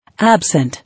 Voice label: "Absent", English

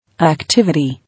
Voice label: "Activity", English

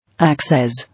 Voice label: "Access", English

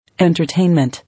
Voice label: "Entertainment", English

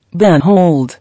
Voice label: "Behold", English

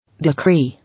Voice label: "Decree", English

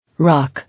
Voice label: "Rock", English

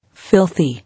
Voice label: "Filthy", English

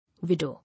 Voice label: "Widow", English

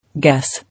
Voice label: "Guess", English

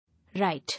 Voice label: "Write", English

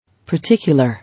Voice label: "Particular", English

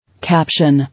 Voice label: "Caption", English

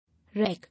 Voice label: "Wreck", English

